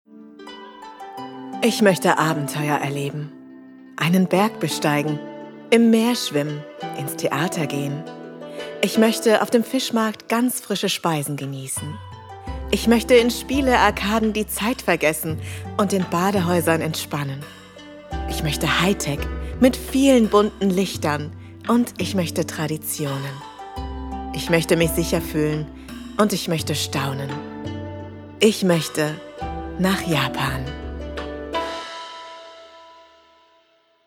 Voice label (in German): Werbung Reise